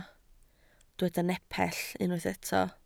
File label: "nepell", Welsh